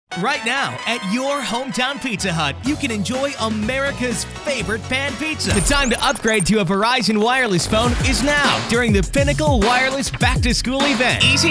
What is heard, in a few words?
happy, male voices for radio commercials